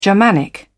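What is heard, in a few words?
"germanic", British English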